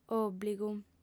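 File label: "òbligu", lingua sarda, female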